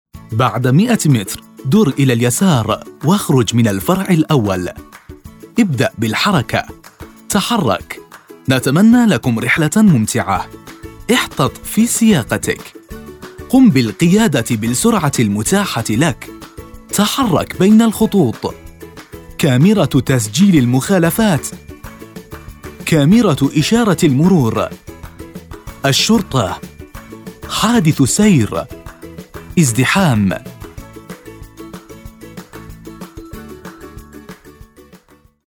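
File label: Arabic Male; zaban kharjeh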